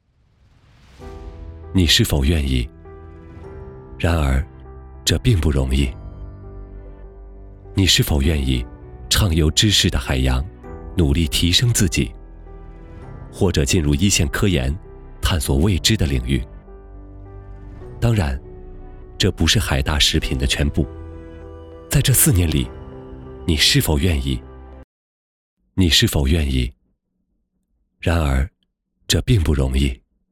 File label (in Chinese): 年轻走心; 年轻走心你是否愿意